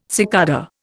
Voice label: "cicada", Indian